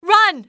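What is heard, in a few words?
"run", english female; XBOX; civ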